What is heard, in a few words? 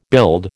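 "build", American English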